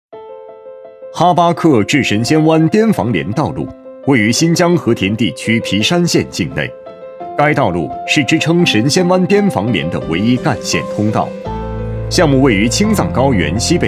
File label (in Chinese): 男 汇报 工程介绍